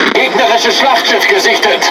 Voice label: Speech Detection Enemy Battleship, DE; World Of Warships